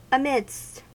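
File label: "amidst", US English; pronunciations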